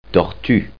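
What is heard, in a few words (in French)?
"tortue", French; Reperages 94; jeuvocab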